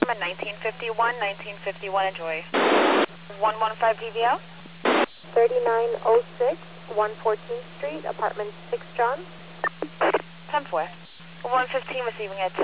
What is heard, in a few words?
police radio